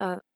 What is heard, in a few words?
disfluency